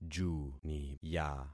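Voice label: "juniya", concatenated syllables; Affixing experiment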